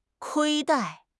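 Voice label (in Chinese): "亏待", Chinese; HSK6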